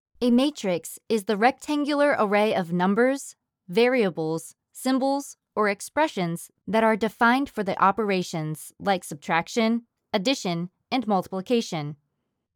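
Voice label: eLearning Professional Medium Pitch, Female; American English voice-over artist; Matrix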